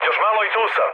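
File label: Serbian near waypoint mood med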